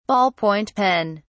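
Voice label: "ball point pen", British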